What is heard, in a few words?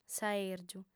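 "saèrgiu", female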